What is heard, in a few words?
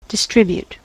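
"distribute", US English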